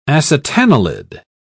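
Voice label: "acetanilid", British English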